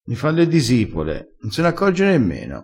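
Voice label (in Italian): "fa le disipole", vocabolario dialettale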